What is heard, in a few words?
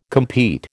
"compete", American